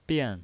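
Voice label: "bian", Mandarin Chinese, fourth tone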